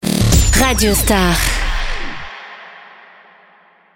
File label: ID SWEEP female; COMBLAGES RADIO STAR; PLEIN COEUR